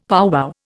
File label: "powwow", Indian; Dictionary